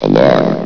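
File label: "alarm", English; valve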